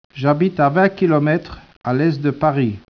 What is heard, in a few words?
speech, French